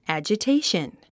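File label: "agitation", English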